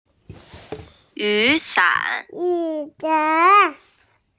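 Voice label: "yu san", Mandarin Chinese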